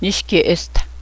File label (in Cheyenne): chipmunk, Cheyenne